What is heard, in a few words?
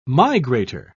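"migrator", British English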